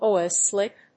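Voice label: "oil slick", English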